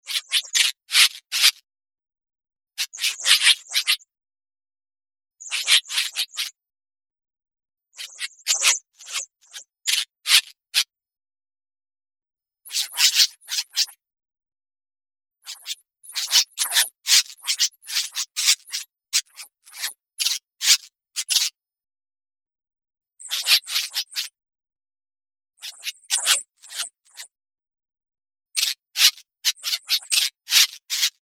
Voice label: alien